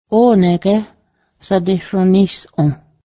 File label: are you ready now, Cayuga; onę́h gę́h sadehsrǫníhsˀǫh